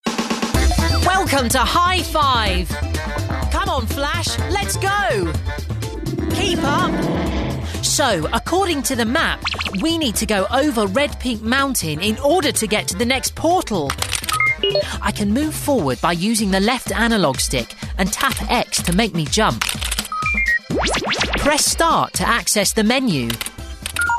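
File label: Animation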